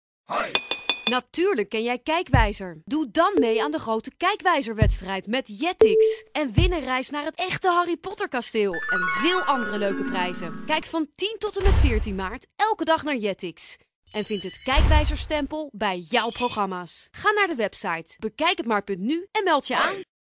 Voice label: female dutch voice over sales

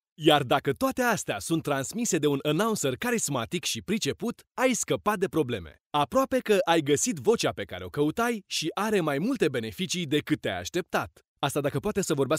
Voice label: male romanian voice over talent sales

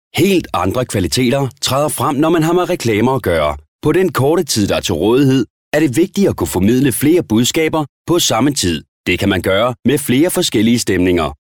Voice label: male danish voice over talent upbeat

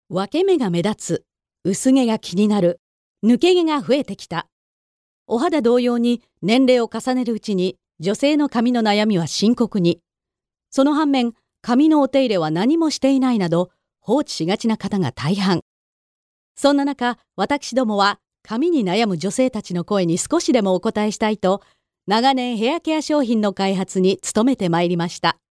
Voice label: female japanese voice over upbeat

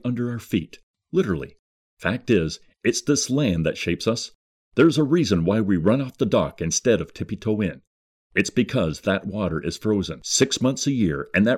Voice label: male canadian voice over sales

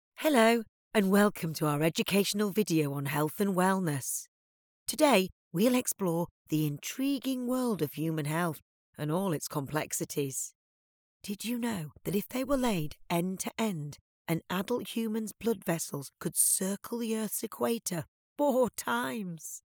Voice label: female british voice over narrative; english british

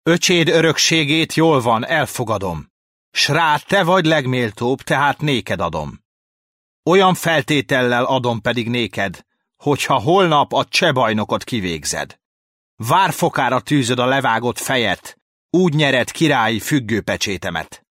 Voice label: male hungarian voice over talent narrative

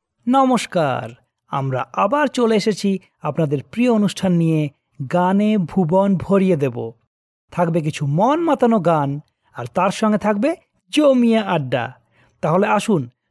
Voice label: male bengali voice over upbeat, bangla

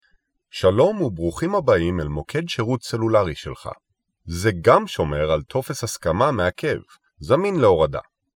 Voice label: male hebrew voice over talent narrative

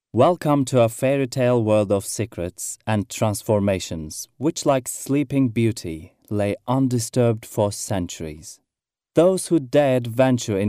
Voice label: male turkish voice over talent english

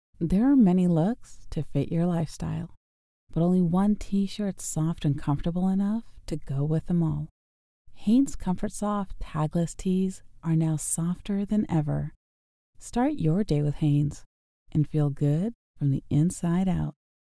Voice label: female american voice over sales; english american

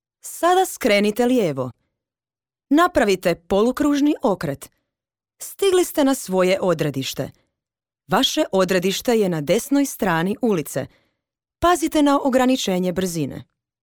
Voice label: female croatian voice over upbeat

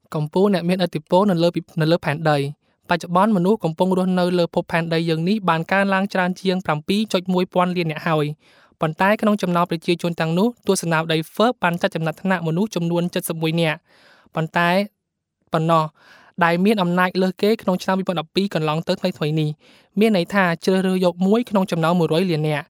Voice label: male khmer voice over narrative, cambodian

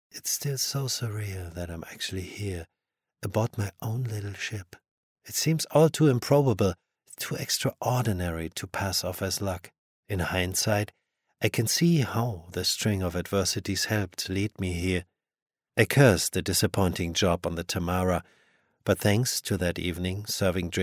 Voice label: male german voice over talent english

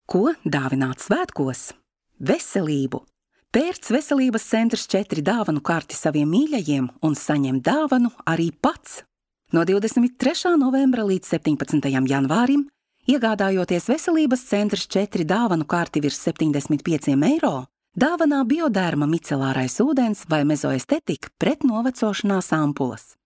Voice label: female latvian voice over sales

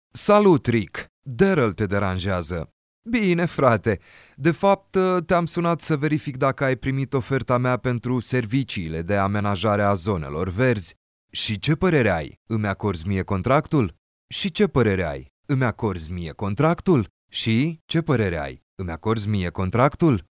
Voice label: male romanian voice over talent character

